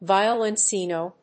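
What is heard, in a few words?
"violoncello", English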